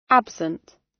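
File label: "absent", British; Flora and fauna, agriculture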